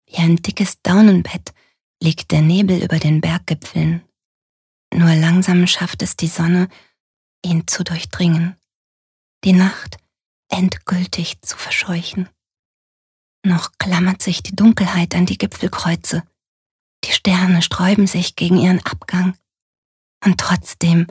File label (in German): Schauspiel Sanft